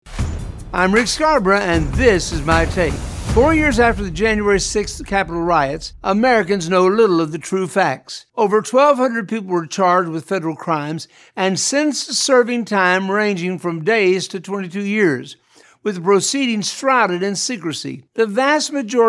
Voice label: radio spot